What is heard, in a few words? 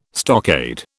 "stockade", British